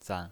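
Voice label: "zan" Mandarin Chinese pinyin voice, neutral tone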